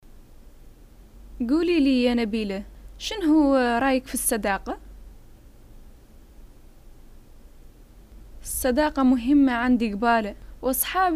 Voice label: friendship, arabic north african hassaniya dialogues